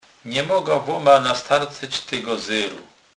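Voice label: "zer", Polish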